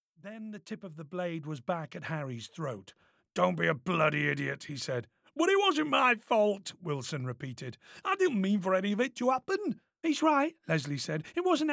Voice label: British RP Yorkshire Raw

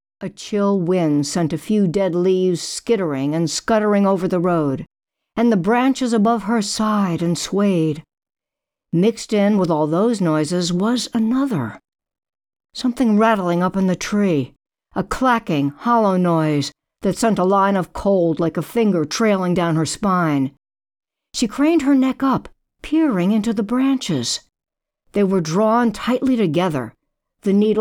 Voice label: Mystery Thriller teens Female

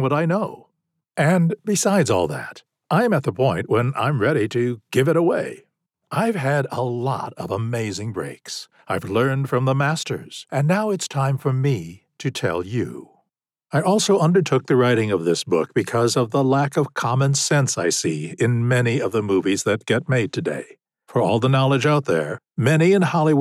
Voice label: Non Fiction How To First Person Narrative

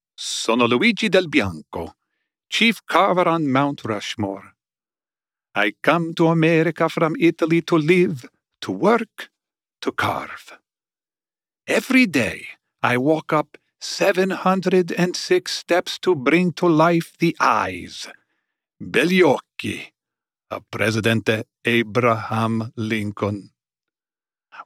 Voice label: Non Fiction 1st Person Historical Memoir

